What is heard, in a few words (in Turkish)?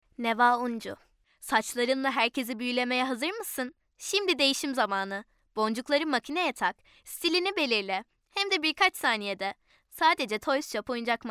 REKLAM